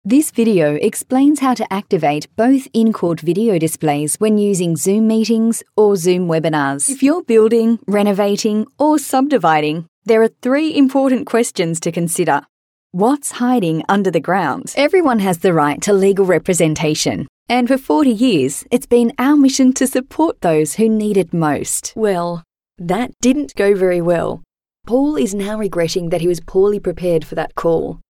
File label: Corporate